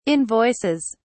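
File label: "invoices", British English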